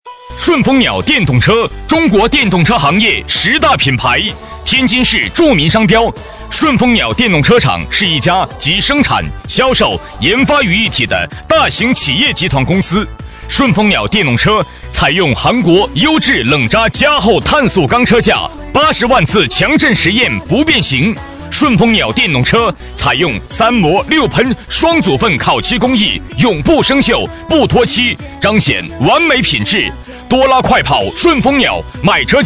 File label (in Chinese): 男声